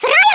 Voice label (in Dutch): "Traitor", Dutch